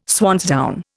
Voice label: "swansdown", Indian